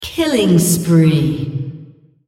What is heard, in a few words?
female On Killing Spree